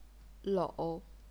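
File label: "lou", third tone, chinese pinyin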